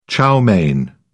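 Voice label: "chow mein", British English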